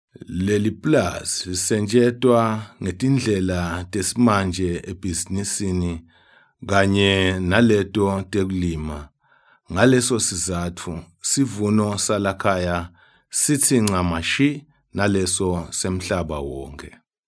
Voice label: male swazi voice over talent narrative